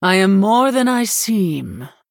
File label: Female Human Picked; en